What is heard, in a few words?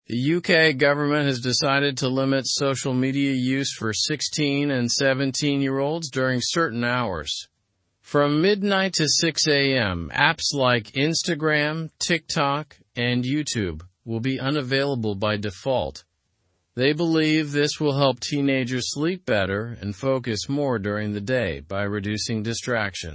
english through news lower intermediate slow; uk plans social media ban for teens